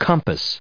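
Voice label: "compass", US English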